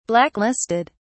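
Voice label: "blacklisted", British English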